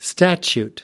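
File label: "statute", American English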